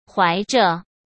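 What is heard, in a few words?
"怀着", Mandarin Chinese; chinese-flashcards; hsk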